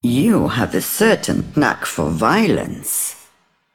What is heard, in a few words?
Female Broker Combat Win